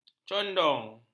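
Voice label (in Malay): "condong", Malay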